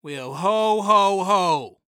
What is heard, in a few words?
Cartoon Voice "Well Ho Ho Ho" Sarcastic; AdobeCC